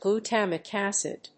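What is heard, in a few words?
"glutamic acid", English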